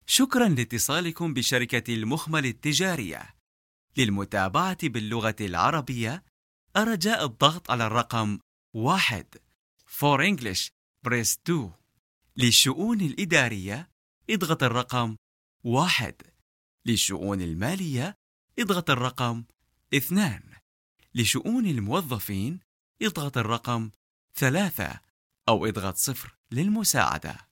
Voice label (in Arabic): الرد الآلي صوت رجالي